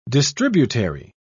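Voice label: "distributary", British English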